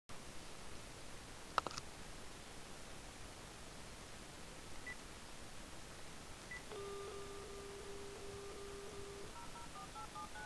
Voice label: telephone talk; Stowarzyszenie Interwencji Prawnej